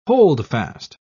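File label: "holdfast", British English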